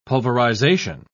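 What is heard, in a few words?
"pulverization", British English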